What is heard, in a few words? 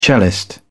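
"cellist", British English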